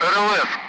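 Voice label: "radar", Russian; Allied Flight; DCS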